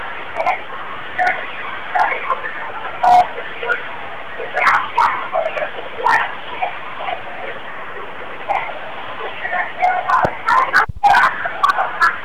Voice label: girls fighting